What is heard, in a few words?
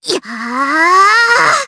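Casting, Japanese; Rehartna; kingsraid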